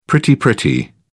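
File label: "pretty pretty", British English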